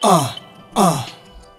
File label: "uh uh", Voice